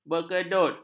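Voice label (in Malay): "berkedut", Malay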